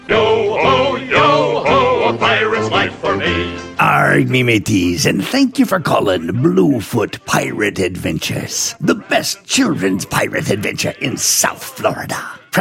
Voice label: Pirate main greeting; Bluefoot Pirate